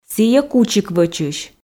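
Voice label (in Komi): сійӧ кучик вӧчысь, Komi, female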